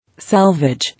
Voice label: "Salvage", English